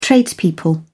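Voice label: "tradespeople", British English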